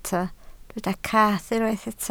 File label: "cath", Welsh